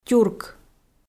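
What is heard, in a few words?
"тюрк", Komi, female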